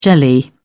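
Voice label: "jelly", British English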